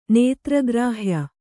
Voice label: "ನೇತ್ರಗ್ರಾಹ್ಯ", Kannada